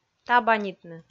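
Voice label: "табанитны", Komi, female; audio dict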